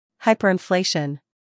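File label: "humor", British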